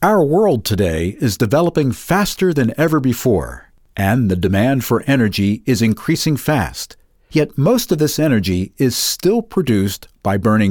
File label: english american corporate videos